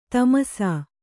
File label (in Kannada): "ತಮಸಾ", Kannada